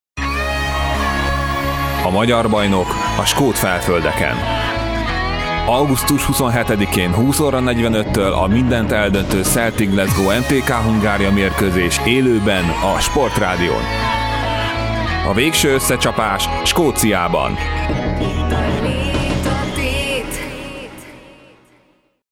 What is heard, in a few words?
spot, sportradio